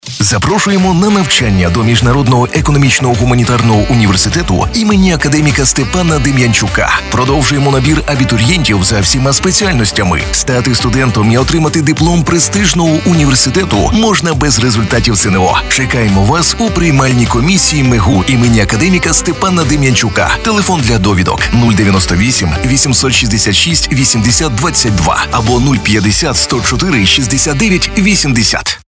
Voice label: ukrainian political ads

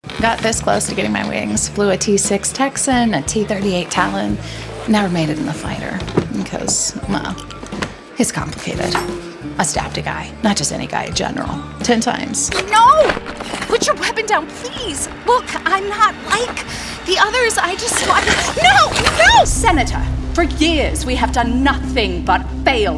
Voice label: english american video games

